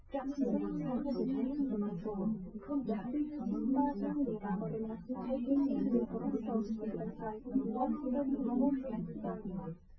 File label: female4 liverec 250ms 5cm src